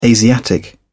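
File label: "asiatic", English, British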